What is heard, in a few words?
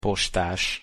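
"postas", Hungarian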